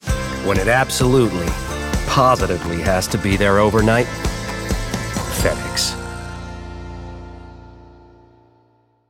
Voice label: Commercials, American; Fed Ex